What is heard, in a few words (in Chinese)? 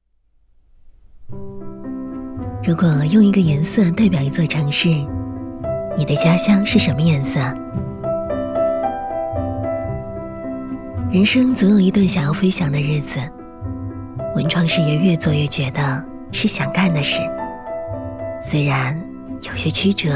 女 旁白 柔和文艺; 向上吧-青春